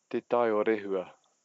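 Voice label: "te tai o rehua", Māori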